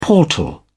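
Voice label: "portal", British English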